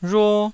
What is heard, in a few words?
"rhuo1", taiwanese